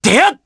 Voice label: Attack, jp; Theo; kingsraid